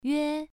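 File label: "yue1", Chinese pronunciation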